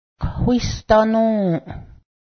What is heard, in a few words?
gold, Cayuga